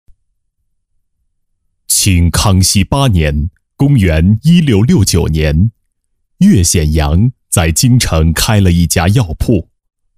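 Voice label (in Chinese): 国语 男声 专题（大气 厚重） 大气浑厚磁性 沉稳; 专题-同仁堂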